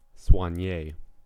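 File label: "soignee", US English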